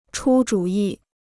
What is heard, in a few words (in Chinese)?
"出主意", Chinese